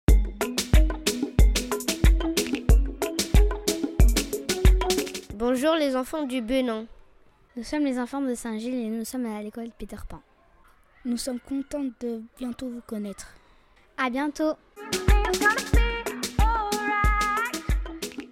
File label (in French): Message d'accueil